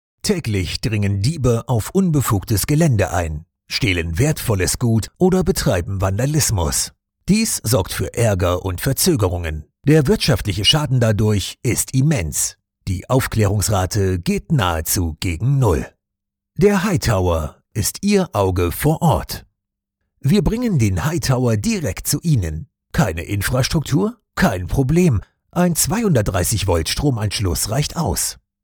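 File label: German, male, explainer; hiTower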